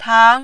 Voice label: "tang2", Mandarin